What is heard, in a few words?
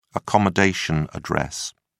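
"accommodation address", British English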